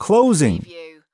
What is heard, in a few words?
"closing", US male; lynne publishing